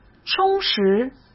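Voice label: "充实", Mandarin Chinese; chinese-flashcards; hsk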